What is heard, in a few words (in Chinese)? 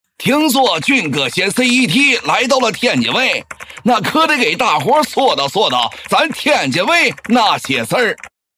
天津方言 男; 方言配音; 天津话; 听 说; 王致和